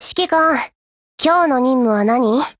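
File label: HELLO, Japanese; GF Alarm; UKM2000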